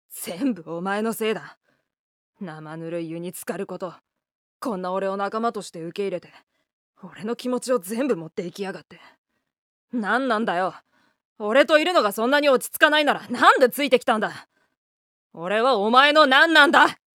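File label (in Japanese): 大人っぽく, 男の子; 同じ台詞で２パターン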